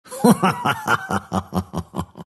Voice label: monkey laugh; dota; monkey king